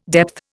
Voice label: "depth", Indian